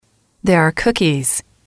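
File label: "there are cookies", English, female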